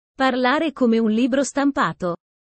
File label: italian sayings about life